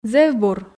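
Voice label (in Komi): зэв бур, Komi, female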